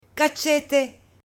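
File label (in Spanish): "cachete" Spanish, male; CUERPO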